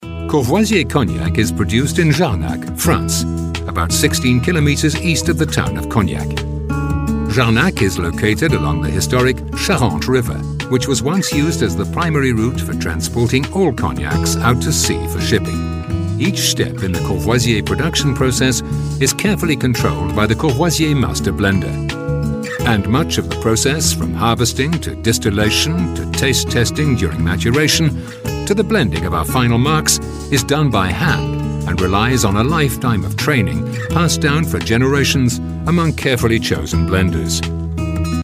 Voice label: corporate